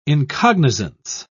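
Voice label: "incognizance", British English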